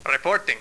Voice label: reporting